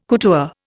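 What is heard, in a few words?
"couture", Indian English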